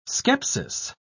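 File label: "scepsis", British English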